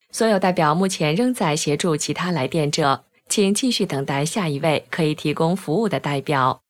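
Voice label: Chinese IVR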